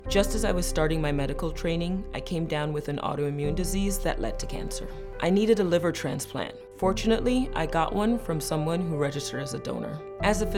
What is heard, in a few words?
RADIO